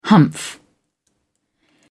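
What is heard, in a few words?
"humph", English, British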